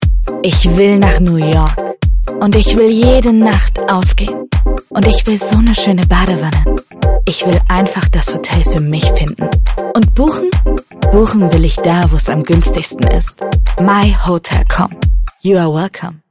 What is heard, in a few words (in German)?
Werbung